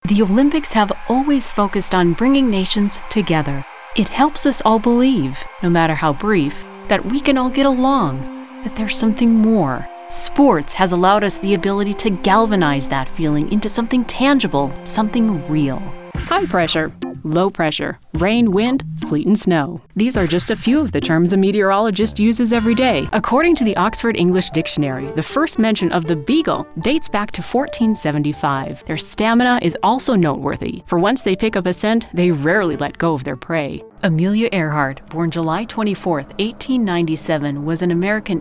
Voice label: narration